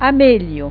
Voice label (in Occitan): "amelha", gasconha